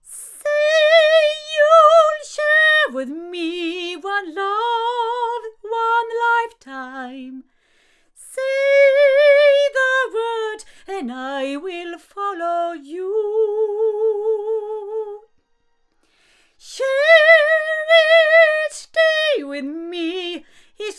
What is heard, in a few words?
female; Pearl ELM-C; microphones